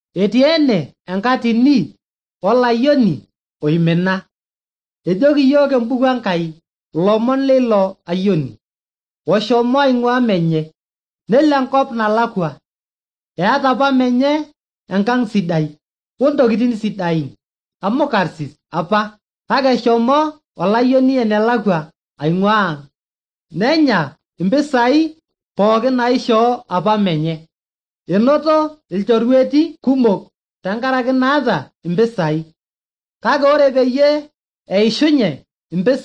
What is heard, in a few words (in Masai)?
maasai bsv nt drama; good news; bible